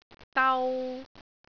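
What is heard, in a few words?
"dau3", Cantonese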